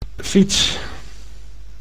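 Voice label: "fiets", Dutch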